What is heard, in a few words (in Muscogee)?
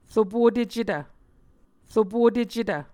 "ropotecetv", Muscogee